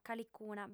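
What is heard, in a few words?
"calicuna", female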